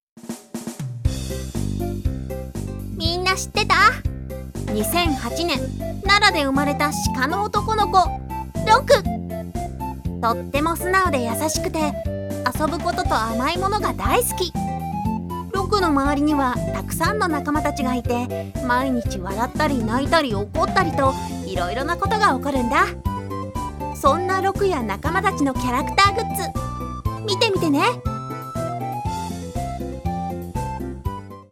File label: japanese animation